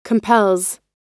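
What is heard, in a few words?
"compels", American English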